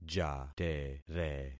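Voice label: "jatere", concatenated syllables; Affixing experiment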